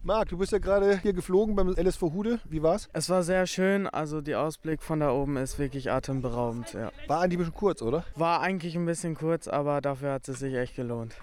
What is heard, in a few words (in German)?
Interview Kinder; Ferienspass